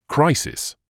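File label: "crisis", British English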